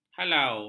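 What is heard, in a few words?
"halau", Malay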